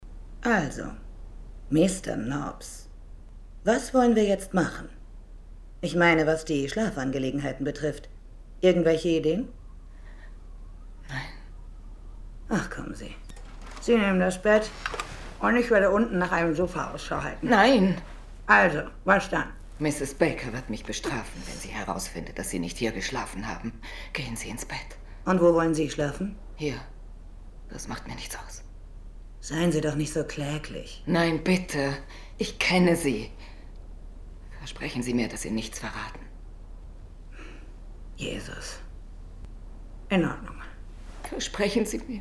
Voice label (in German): Sprecherin; FILM-SYNCHRO